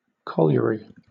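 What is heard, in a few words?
"colliery", British English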